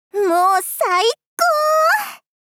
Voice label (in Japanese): もうさいこ～！ 少女×中音域